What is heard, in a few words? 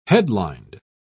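"headlined", British English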